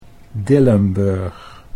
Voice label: "Dillenburg", Dutch